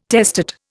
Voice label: "testate", Indian